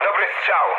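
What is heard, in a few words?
bomb success, mood low, Polish speech; War Thunder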